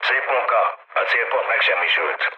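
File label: Hungarian bomb success mood low, speech; War Thunder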